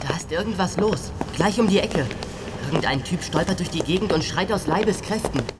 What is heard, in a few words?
german; audiobooks; translation; Animorphs 01 Die Invasion